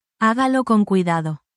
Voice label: spanish, spanish phrases you can use in any workplace